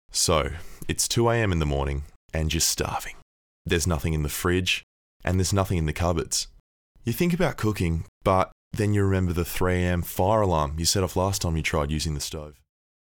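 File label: acting voice over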